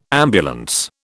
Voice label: "ambulance", British

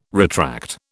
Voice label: "retract", British

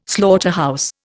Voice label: "slaughterhouse", Indian

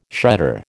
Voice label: "shredder", American

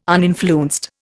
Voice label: "uninfluenced", Indian

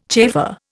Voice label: "chafer", Indian

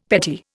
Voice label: "petit", Indian; Dictionary

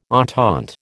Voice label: "entente", American

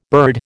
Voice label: "bird", American

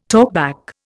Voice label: "talkback", Indian

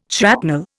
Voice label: "shrapnel", Indian

